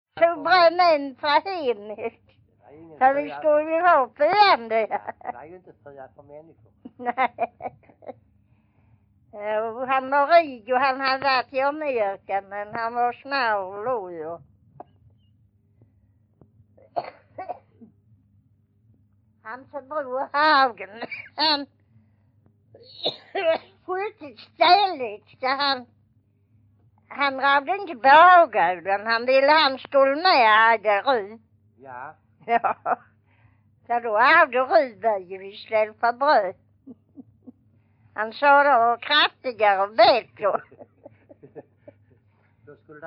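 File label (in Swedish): Vånga Skåne, dialekter; Folkminnen; Svenska sagor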